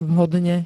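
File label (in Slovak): "vhodne", Slovak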